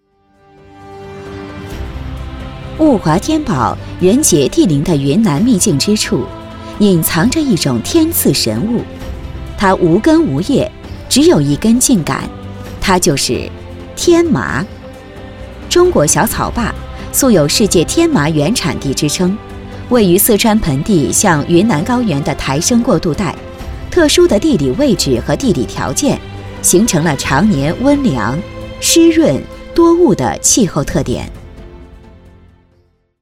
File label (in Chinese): 专题 产品 浑厚; 天麻